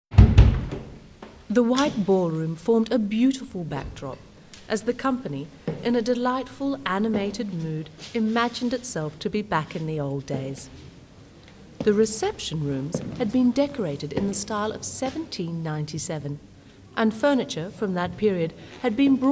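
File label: Australian voiceover, englisch; sprecher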